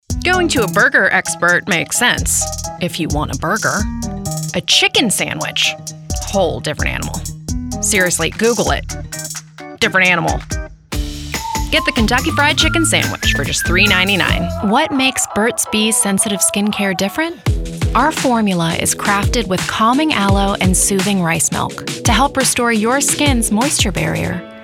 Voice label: commercial